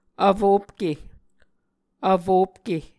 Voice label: "vfopke", Muscogee